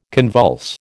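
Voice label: "convulse", American English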